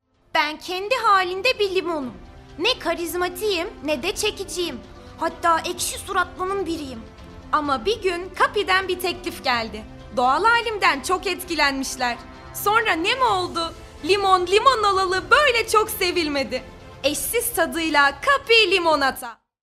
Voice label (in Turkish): reklam